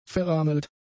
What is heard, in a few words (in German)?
"verrammelt", german; adjectives